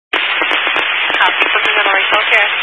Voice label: Highway Patrol, scanner; DPS; Dist 06 Casa Grande

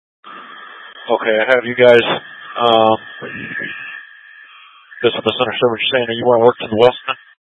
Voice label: Mutual Aid-Intersystem Interop B, scanner; AMBER Alert

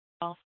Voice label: Mesa PD Central Dispatch, Scanner

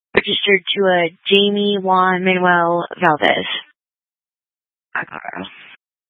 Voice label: Police Dispatch

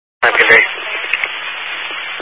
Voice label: Highway Patrol, scanner; DPS

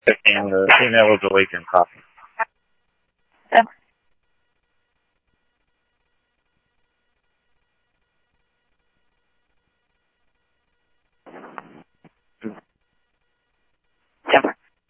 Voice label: Police, scanner audio trunk

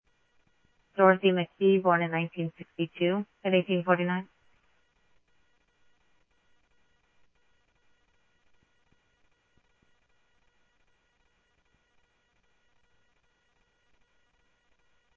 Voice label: Police, scanner audio

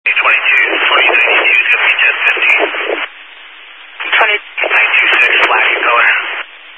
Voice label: Highway Patrol, Phoenix Metro East Area, scanner

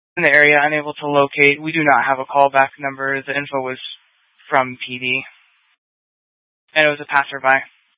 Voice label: Fire Dispatch; K8 - FDCOM 8 - South; C- Chandler

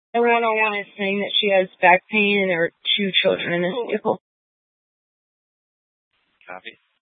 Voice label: Mesa PD Dispatch

